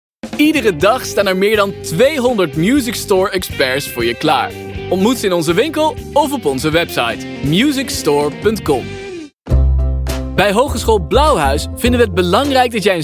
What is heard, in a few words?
dutch commercial